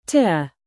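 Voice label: "present", noun, British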